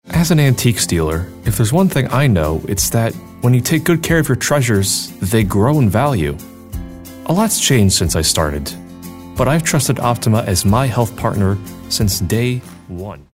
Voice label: guy next door